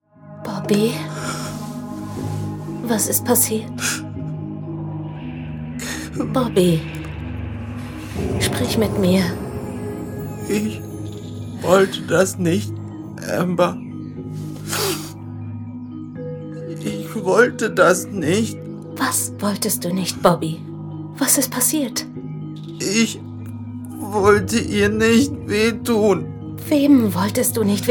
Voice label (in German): Hoerspiel